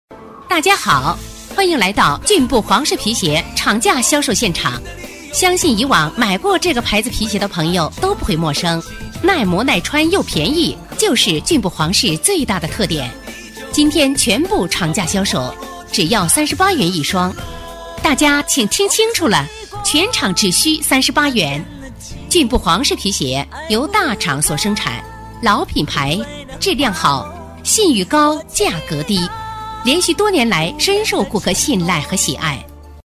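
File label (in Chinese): 女促销广告; 厂价销售-皮鞋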